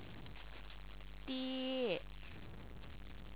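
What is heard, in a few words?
"die", Chinese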